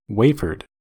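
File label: "wafered", American English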